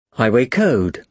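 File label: "highway code", British English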